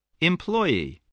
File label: "employee", spelling